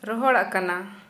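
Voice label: Santali female dried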